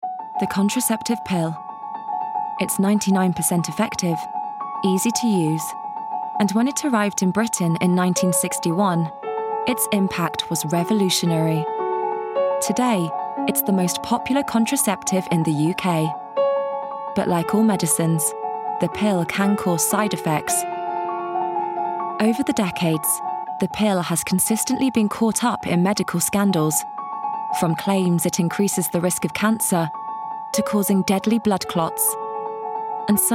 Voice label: doco